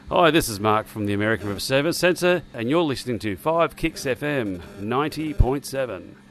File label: Ident